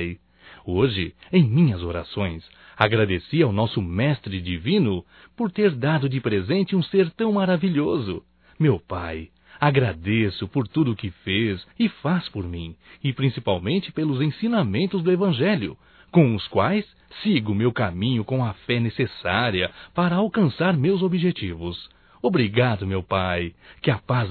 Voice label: Pai Masculino, Natal; Telemensagens 2017; Natal & Ano Novo

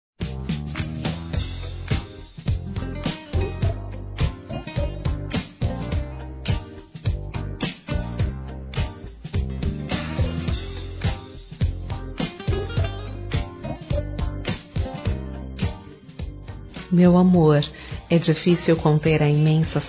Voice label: Saudades Romântica Feminino; Telemensagens

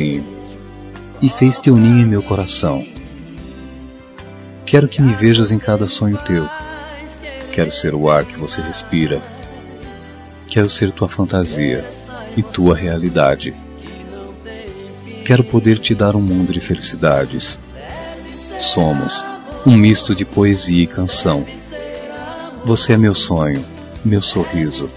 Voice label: AO AMOR DA MINHA VIDA MASC, Telemensagens; Telemensagens 2017

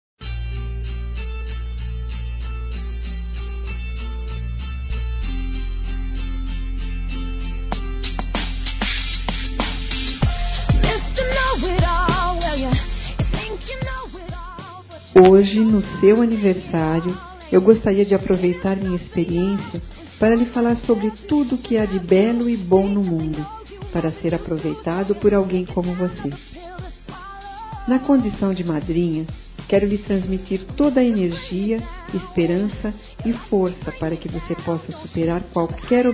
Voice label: AFILHADO(A) F; Telemensagens 2017; Aniversários; Aniv Familiar

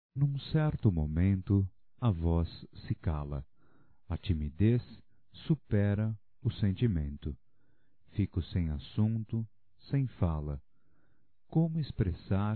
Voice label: E só Olhar Masculino

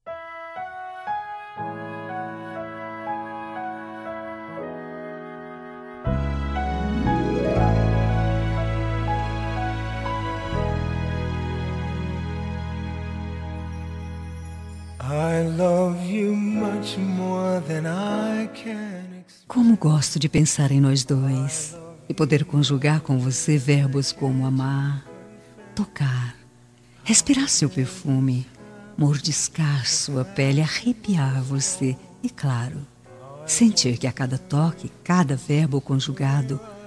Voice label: ROMÂNTICA PICANTE FEM

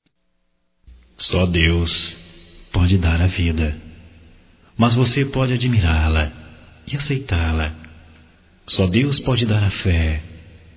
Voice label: Otimismo Evangélico Masculino; Telemensagens 2017

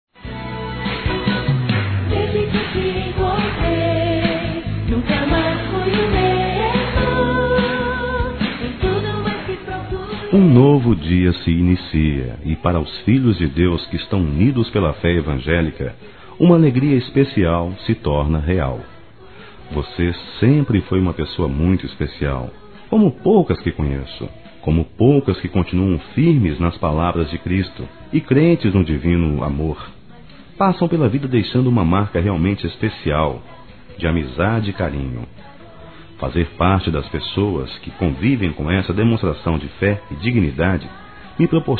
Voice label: Aniversário Neutro Evangelico Masculino

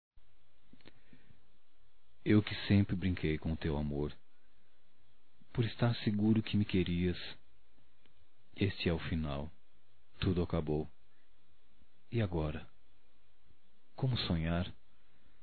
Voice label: Despedida Poesia Masculino; Despedidas e boas vindas; Telemensagens 2017

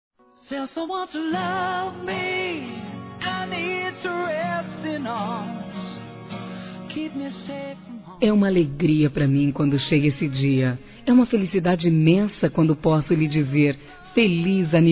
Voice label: BISNETO A F, Telemensagens, Aniversários, Fem; Aniv Familiar

